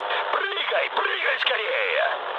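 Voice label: Russian bailout mood med, speech; War Thunder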